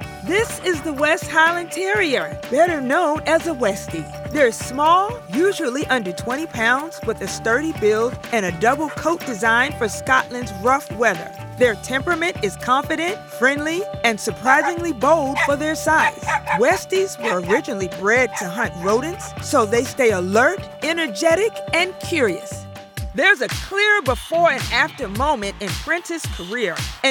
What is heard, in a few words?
Narration